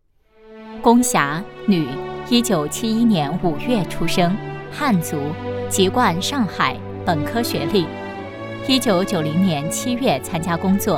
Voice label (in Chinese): 女 人物 党员干部